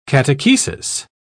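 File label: "catechesis", British English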